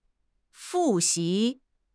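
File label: "fu4 xi2", Chinese; HSK; HSK3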